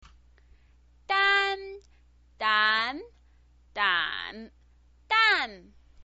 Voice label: "dan", chinese pinyin